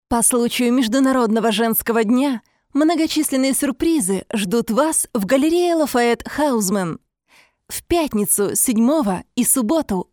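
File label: Russian Russia female narrative; russian voice over talent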